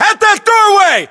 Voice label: US landmark near ext doorway, battlechatter, english